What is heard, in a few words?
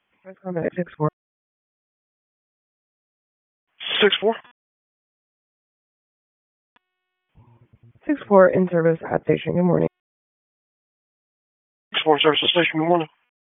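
Wake County EMS Dispatch, p25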